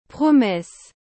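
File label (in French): "promesse", French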